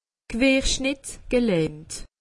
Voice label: "querschnitt", alsace; medecine

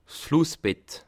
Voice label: "flussbett", Alsace

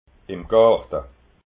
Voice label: "imgarta", alsace

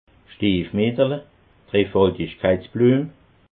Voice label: "stiefmietterle", alsace

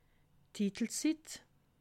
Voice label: "titelsitt", alsace

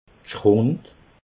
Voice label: "schrund", alsace

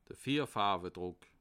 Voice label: "vierfarbdruck", alsace

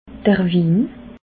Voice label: "win", alsace

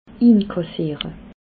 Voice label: "inkassiere", alsace

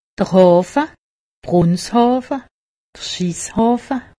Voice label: "hafe", alsace; medecine

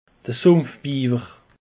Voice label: "sumpfbiewer", alsace; peche

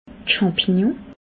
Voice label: "champignons", Alsace

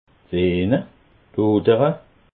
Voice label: "veene", alsace

